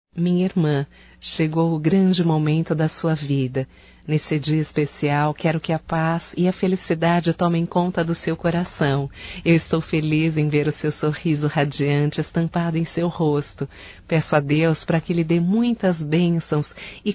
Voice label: PARABENS PELO CASAMENTO DA IRMA, fem; Casamentos; Telemensagens 2017

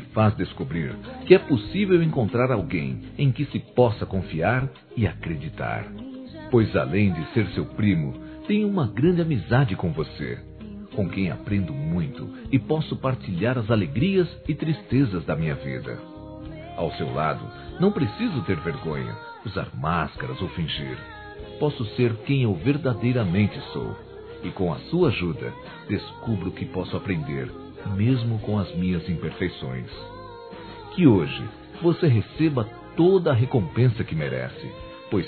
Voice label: prima m; ANIV. Evangelico

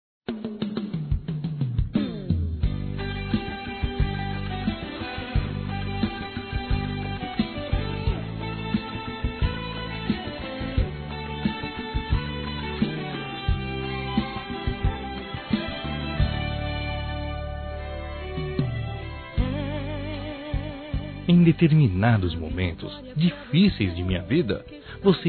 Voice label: Mensagem Mãe Masculino

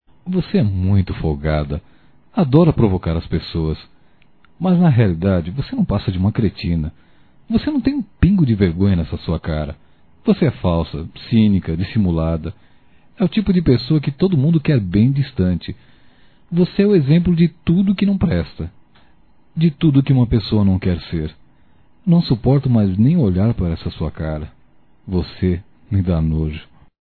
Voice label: Xingando geral homem para mulher Masculino; Telemensagens 2017

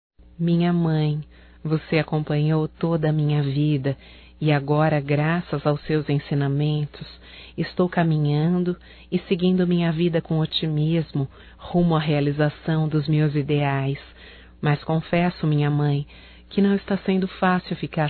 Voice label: SAUDADES MAE, Telemensagens, Só Voz, fem

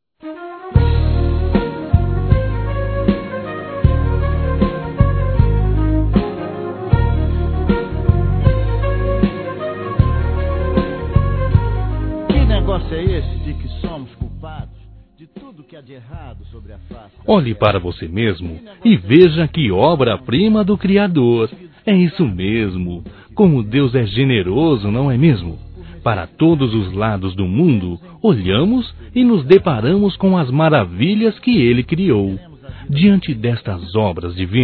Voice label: Ame a Vida Masculino; Telemensagens; Otimismo

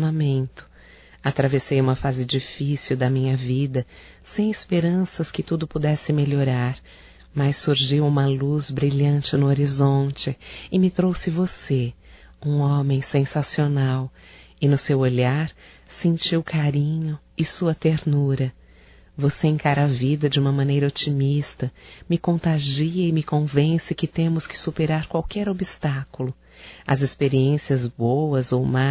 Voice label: Aniv romântico, Telemensagens Aniversarios; Telemensagens 2017

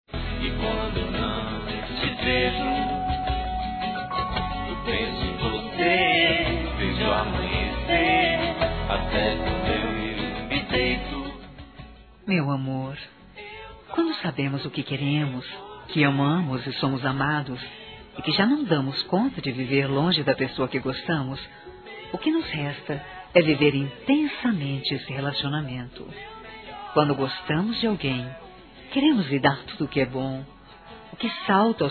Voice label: aniversario namorado, fem; Aniversários; ANIVERSARIO romantico

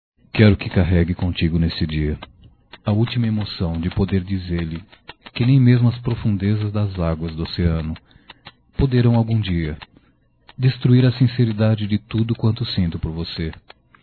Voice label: Amor Sincero Masculino, Telemensagens; Telemensagens 2017

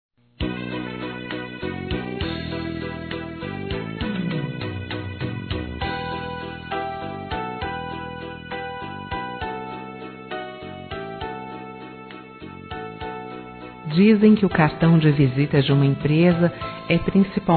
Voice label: recepcionista F, telemensagens homenagens; fem

